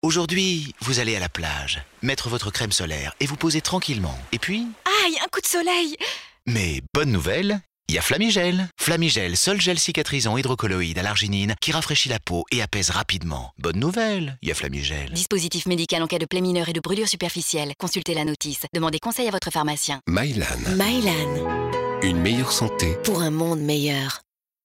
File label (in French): Spot radio; FLAMIGEL; Bronzette